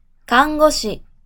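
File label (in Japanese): "看護師" Japanese, male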